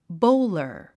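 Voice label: "bowler", English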